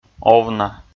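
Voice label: "овна", Komi, male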